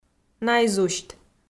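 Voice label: "наизусьт", Komi, female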